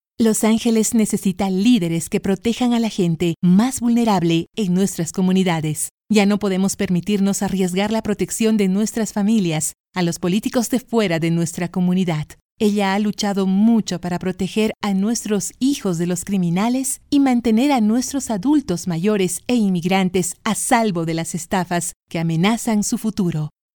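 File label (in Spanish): aviso político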